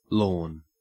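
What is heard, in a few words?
"lorn", Australian English; pronunciations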